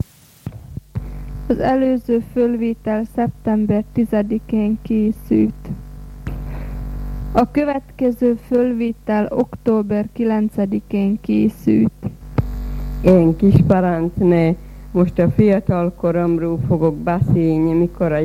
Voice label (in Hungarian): nyelvjaras gyujtesek